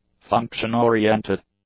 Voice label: "function-oriented", English; adjectives